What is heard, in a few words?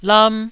"lam1", Taiwanese syllable